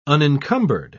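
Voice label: "unencumbered", British English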